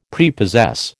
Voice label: "Prepossess", American